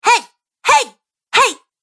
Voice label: Womans Voice "Hey" Collection; Recorded Voice Sound Effects

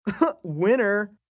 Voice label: Huh Winner M, Attitude, male: 'Winner'; human voice speaking; Talking

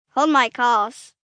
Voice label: Hold My Calls, Adult Phrase, 1 child, 8 yrs; Talking Sound Effects

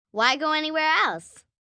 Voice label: Why Go Anywhere El, Sales Pitch, 1 girl, 9 yrs; Talking Sound Effects; VoiceBox; Twistedtracks

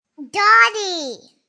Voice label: Kid Says Doggie, Kid talking; Voice; Talking Sound Effects

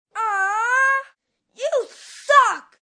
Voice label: Aww You Suck, Boo, 1 boy, 10 yrs, Booing

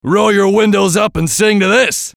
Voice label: VOICE COMICAL; Comedy; Radio; Funny Sound

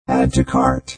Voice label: Robot Voice "Add To Cart"

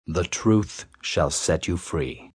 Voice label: Truth Shall Set, Sayings, male; Talking; VoiceBox

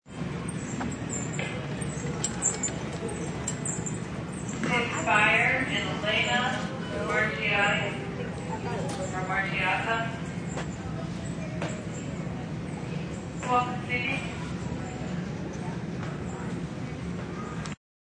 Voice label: Arprt Annc, Airport Loudspeaker Announcement; Background sounds of passengers, announcements, luggage, etc.

